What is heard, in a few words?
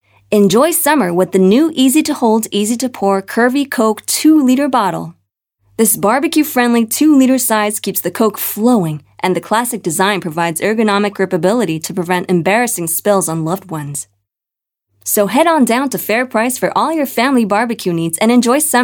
US English VO Upbeat, English US Female